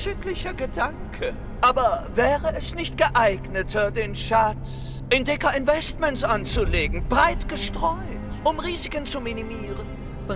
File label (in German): Werbung Drachen; DEKA